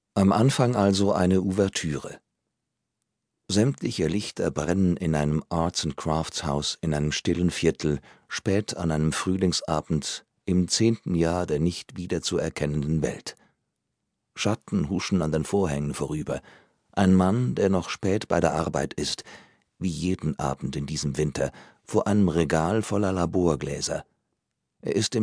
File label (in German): Hoerbuch Sprecher; Orfeo; Richard Powers; SBS Daisy Hoerbuch; bibliothek fuer blinde seh und lesebehinderte